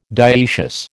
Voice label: "dioecious", American

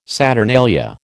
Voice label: "saturnalia", American

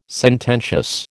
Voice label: "sententious", American

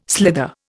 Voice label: "slither", Indian

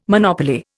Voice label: "monopoly", Indian

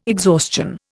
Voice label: "exhaustion", Indian

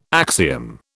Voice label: "axiom", British

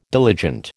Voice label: "diligent", American

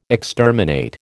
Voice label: "exterminate", American

